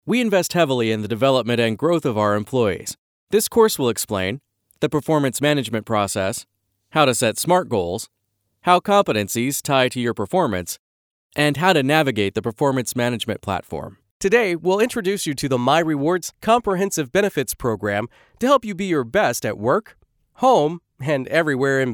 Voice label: Narration Tutorial